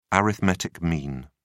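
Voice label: "arithmetic mean", British English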